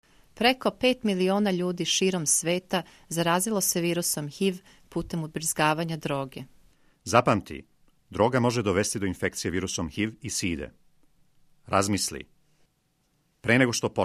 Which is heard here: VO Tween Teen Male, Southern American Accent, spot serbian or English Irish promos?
spot serbian